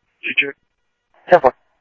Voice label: PD Police, scanner audio; ThompsonPeakHigh

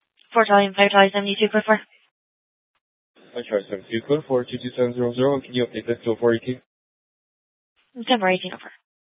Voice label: Superstition Dispatch, Mesa PD

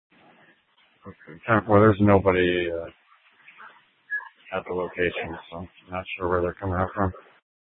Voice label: Dispatch; Scottsdale

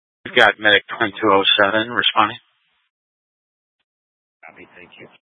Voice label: Fire Departments Mesa Simulcast; TOPAZ

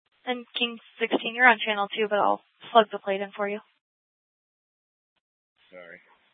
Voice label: Mesa PD Central Dispatch, scanner

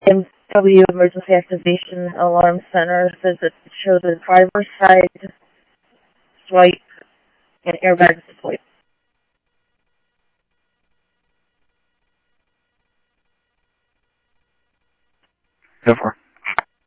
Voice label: Police, scanner; H-Scottsdale; ScottsdalePolice

